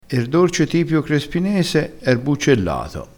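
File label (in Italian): "dorce", dialettale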